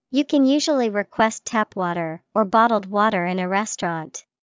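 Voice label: water, English vocabulary; water or bottled water in a restaurant.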